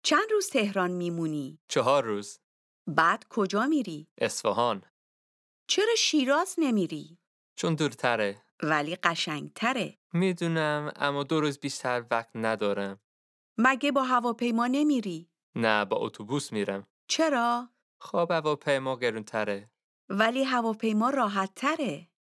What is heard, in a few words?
Dialogue lesson Farsi